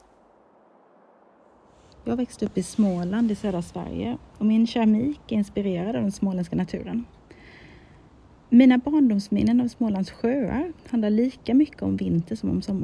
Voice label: audio voiceover